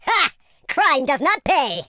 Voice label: perfect, speech, Super Hero

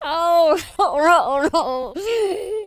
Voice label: lose loop, Drill Sergeant; speech

